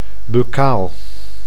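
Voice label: "buccaal", Dutch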